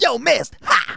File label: "missed", Soul Man